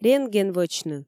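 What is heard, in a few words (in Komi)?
рентген вӧчны, Komi, female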